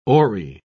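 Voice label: "oary", English, British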